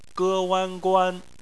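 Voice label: "guan" bopomofo, Chinese Phonetics; bpmf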